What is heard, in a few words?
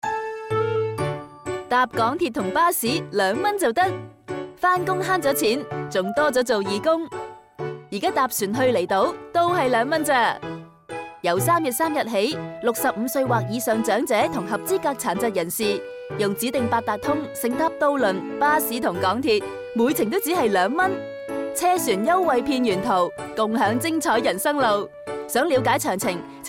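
Cantonese Radio; 扩展至渡轮及 新大屿山巴士[2013]; 政府长者及合资格残疾人士公共交通票价优惠计划 (二元优惠计划); 粤语广播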